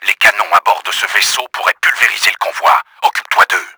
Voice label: SHOOT GUNS, french; Battle Engine Aquila; XBOX